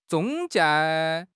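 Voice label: "zhong1 jie4", Chinese; HSK; HSK3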